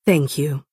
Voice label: female thank you; Dunmer